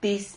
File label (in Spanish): "tiz", Silabas